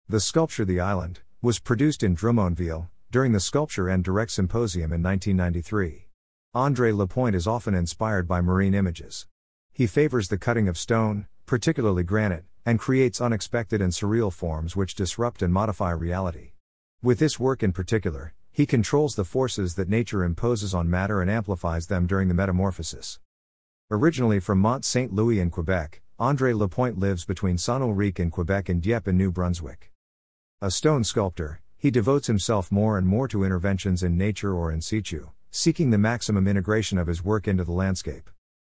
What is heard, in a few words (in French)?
anglais